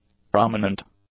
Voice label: "prominent", english; adjectives

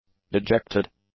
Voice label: "dejected", english; adjectives

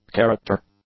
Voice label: "character", English; nouns

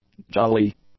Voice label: "jolly", english; adjectives

